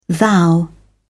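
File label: "thou", British English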